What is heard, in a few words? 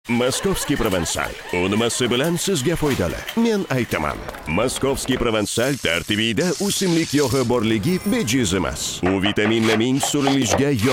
Uzbek male